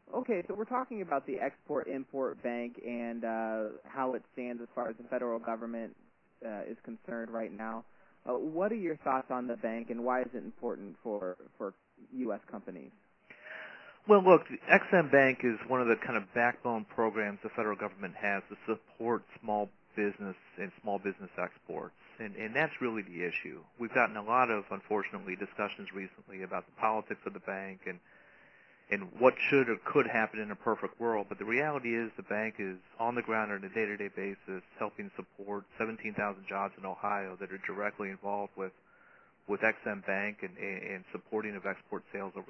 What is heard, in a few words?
oh pub radio soundbite